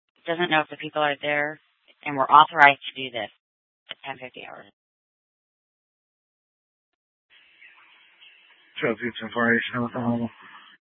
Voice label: Scottsdale PD Sth Dispatch, scanner; Regional Wireless Cooperative (RWC) - Simulcast H- Scottsdale